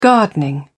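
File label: "gardening", British English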